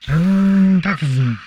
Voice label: humanoid portrait male; Stellaris; humanoids

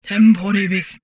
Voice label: humanoid portrait female; Stellaris; humanoids

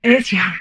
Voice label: humanoid female; Stellaris; humanoids

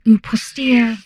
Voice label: humanoid female; Stellaris

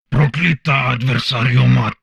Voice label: humanoid male; Stellaris

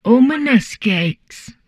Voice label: humanoid portrait female; Stellaris; humanoids

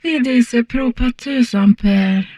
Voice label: humanoid female; Stellaris

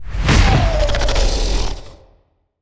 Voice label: portrait synthetic; Stellaris; machineage

